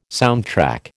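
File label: "soundtrack", American English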